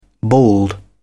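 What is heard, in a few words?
"bald", British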